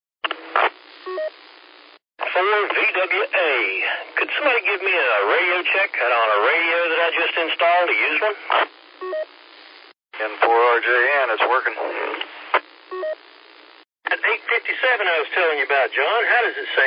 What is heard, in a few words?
qso rec simplex logic